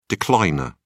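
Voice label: "decliner", British English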